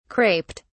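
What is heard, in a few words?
"craped", English, British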